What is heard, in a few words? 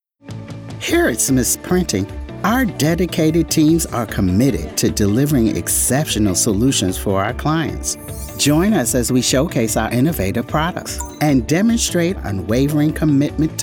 Narration